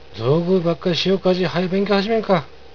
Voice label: ぞーぐいばっかいしよかーじ、はよ勉強はじめんかっ！, 有田弁; 取っ組み合い遊びばっかりせずに、早く勉強をはじめないか！; （遊びでの）取っ組み合い、格闘遊び

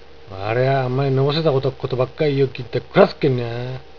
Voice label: わりゃー、あんまいのぼせたごたっ事ばっかい言いよっぎんた、くらすっけんにゃー。, 有田弁; てめぇ、あまり調子にのった事ばかり言ってたら、ぶん殴ってやるぞ。; 佐賀弁; くらすっ，くらさるっ; 叩く，殴る

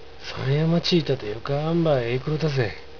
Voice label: 有田弁; 三夜待ち行たてよかーんばいえーくろーたぜー。; 三夜待ち（近所の寄合い）に行って、いい具合に酔っ払ってしまった。; えーくらう; 酔っ払う

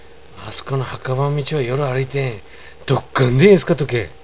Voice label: 有田弁; あすこの墓場ん道ば夜歩いてーん、どっがんでんえすかとけー。; あそこの墓場の道を夜歩いてごらん、とっても怖いぞ。

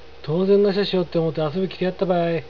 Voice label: とーぜんなしゃーしよって思ーて、遊び来てやったばい。, 有田弁; 暇を持て余している（独りで寂しいだろう）と思って、遊びに来てやったぞ。; とーぜんなか; 手持ち無沙汰だ，淋しい（独りぼっちでなんとなく）

